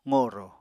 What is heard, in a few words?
"qorò", Apatani